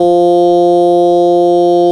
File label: bass, o, F3; 辉夜大小姐